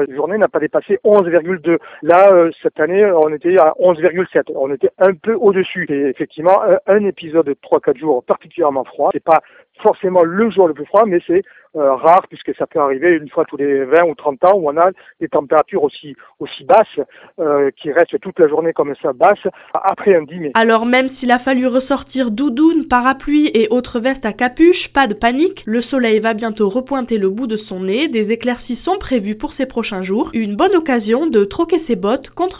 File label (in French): Météo Toulouse